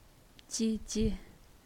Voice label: naxi older sister